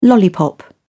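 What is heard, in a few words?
"lollipop", British English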